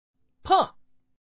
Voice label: peng, man; mahjongjinghai